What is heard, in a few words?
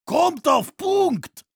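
Heavy fight on cap, german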